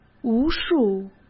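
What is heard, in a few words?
"无数", Mandarin Chinese; chinese flashcards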